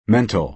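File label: "mental", American English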